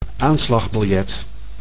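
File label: "aanslagbiljet", Dutch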